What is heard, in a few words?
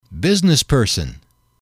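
"business person", male, English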